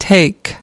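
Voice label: "take something up", English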